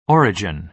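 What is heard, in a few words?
"origin", American English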